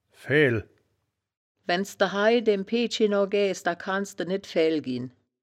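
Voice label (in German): "faehl", wittlicher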